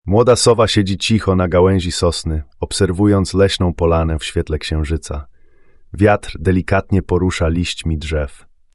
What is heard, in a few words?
Polish; OpenAI